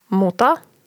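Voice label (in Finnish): "muta", Finnish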